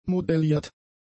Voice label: "modelliert", German; adjectives

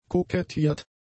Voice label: "kokettiert", German; adjectives

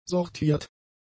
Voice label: "sortiert", german; adjectives

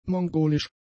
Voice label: "mongolisch", German; adjectives

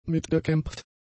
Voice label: "mitgekämpft", German; adjectives

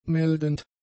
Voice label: "meldend", German; adjectives

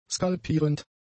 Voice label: "skalpierend", german; adjectives

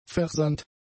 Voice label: "versandt", german; adjectives

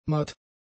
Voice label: "matt", German; adjectives

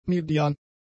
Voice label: "median", German; adjectives

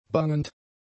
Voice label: "bangend", German; adjectives

